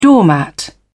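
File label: "doormat", British English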